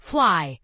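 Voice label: "fly", English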